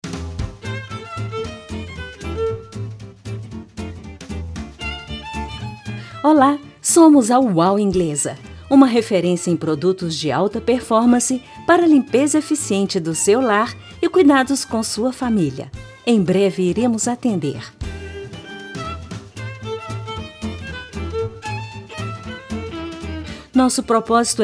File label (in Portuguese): espera descontraido; ingleza